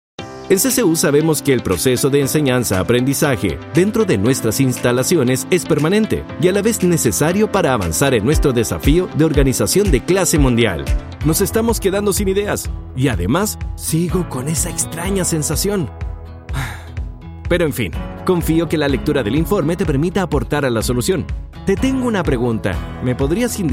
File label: spanish latin american e-learning